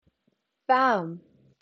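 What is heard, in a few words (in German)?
"warm", German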